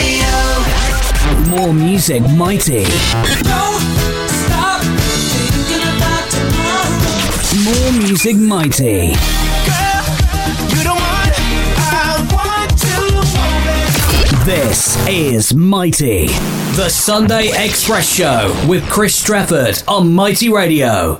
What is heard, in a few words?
station voice; mighty radio southport